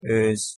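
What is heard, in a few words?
"oz", Hungarian pronunciation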